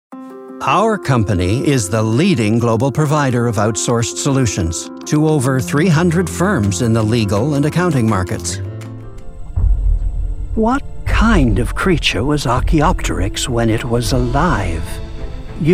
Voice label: narration

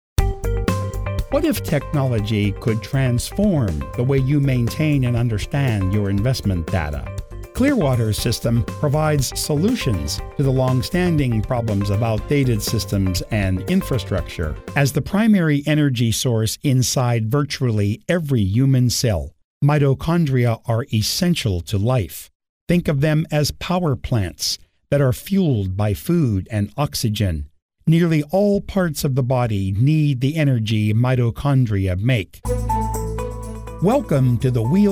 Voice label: E-Learning

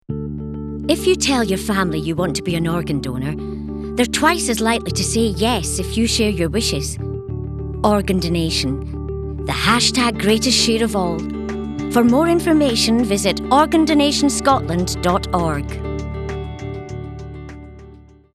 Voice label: Commercial; Organ Donation

